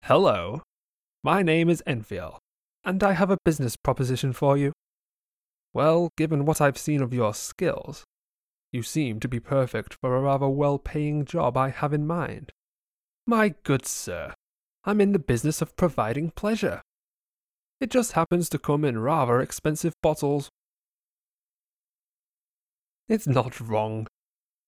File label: bosmer skooma dealer, wood elf voice auditions